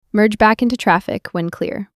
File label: Female Merge Into Traffic